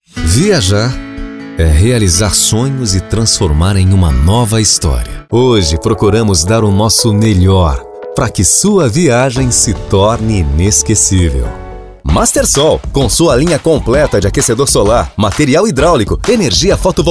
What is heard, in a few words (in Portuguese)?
locutor